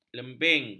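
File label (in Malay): "lembing", Malay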